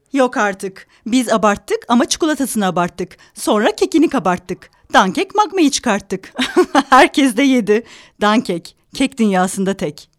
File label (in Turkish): reklam